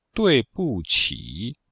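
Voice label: "dui4 bu4 qi3", Mandarin Chinese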